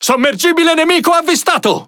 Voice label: It Speech Detection Enemy Sub; World Of Warships

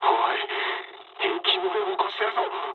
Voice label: damaged water mood med, speech jp; War Thunder

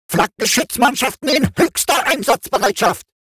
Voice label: robot Consumable AAC Ready; World Of Warships

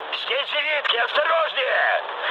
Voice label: damaged aa, mood med, speech ru; War Thunder

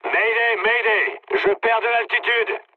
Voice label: aircraft destroyed mood low, speech fr; War Thunder

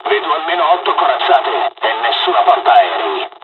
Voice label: japanese first wave, dialogs italian; War Thunder

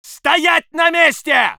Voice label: voice sov infantry

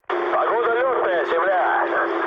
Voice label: chat, speech ru

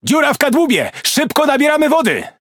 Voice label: Speech Alarms Critical Flooding, PL; World Of Warships

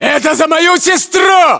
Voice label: inform killfirm infantry, battlechatter ru

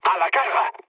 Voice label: enemy detected mood low; speech; War Thunder

